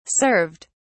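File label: "served", British English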